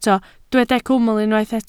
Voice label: "cwmwl", Welsh